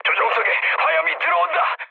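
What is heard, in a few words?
Korean on fire mood low; War Thunder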